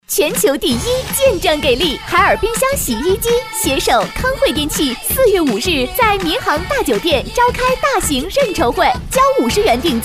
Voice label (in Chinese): 叫卖广告 激情; 广告 促销; 康汇